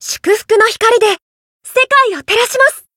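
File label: Voice variable chant, Japanese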